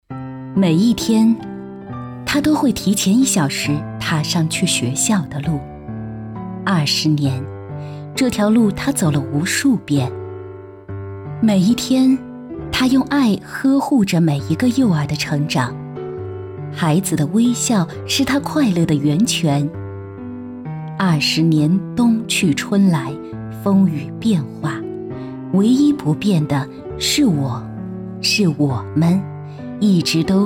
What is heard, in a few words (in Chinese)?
女, 专题, 幼儿园走心